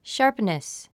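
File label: "sharpness", 英単語 発音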